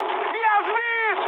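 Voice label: Russian aircraft destroyed mood high, speech; War Thunder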